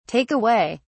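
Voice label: "take away", British English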